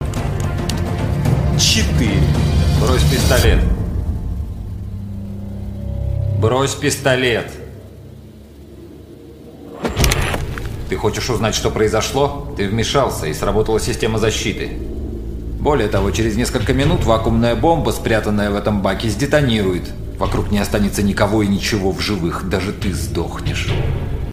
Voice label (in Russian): golos kino